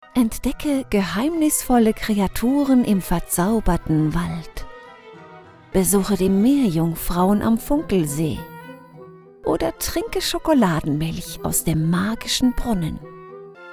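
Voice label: German, female, commercial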